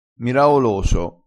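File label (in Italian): "miraoloso", dialettale crespinese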